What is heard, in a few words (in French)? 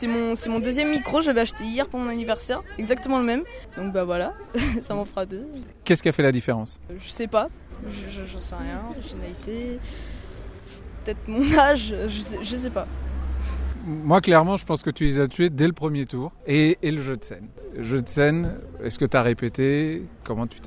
Interview Contest Beatbox; Emergences 2010